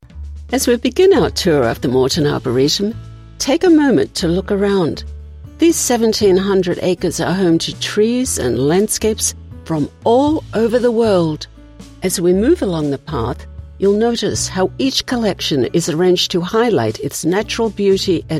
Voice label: Narration